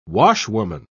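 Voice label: "washwoman", British English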